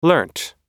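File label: "learnt", American English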